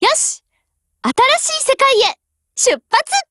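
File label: OPERATION BEGIN Japanese; GF Alarm; UMP9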